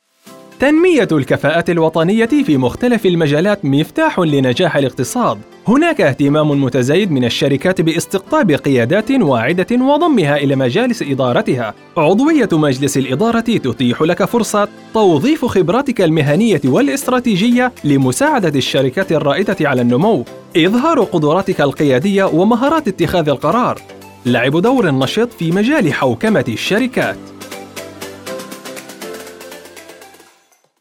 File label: male Arabic smooth, recited, commercial; 20-40